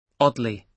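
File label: "oddly" English, British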